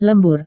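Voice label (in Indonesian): "lembur", Indonesian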